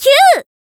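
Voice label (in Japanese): "きゅう" (kyuu), Japanese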